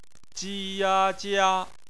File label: "jia", Chinese; phonetics; bpmf